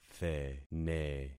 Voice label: "thene", concat syllables; Affixing experiment